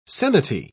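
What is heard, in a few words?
"seniti", British English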